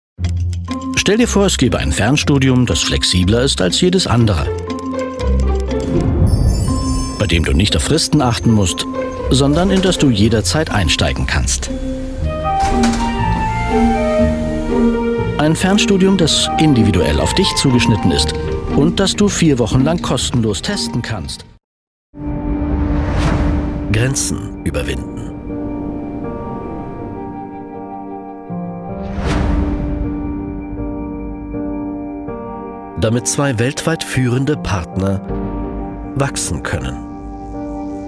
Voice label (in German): imagefilm